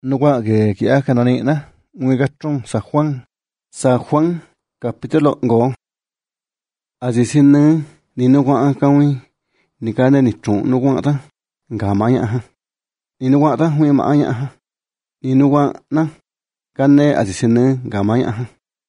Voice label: triqui de chicahuaxtla, new testament, audio bible; trs triqui chicahuaxtla